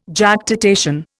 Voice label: "Jactitation", Indian